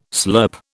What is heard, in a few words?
"slurp", British